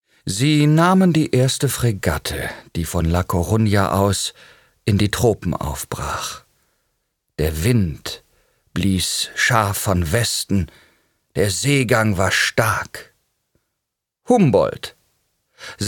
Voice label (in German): Hoerbuch